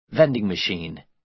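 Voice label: "vending machine", American English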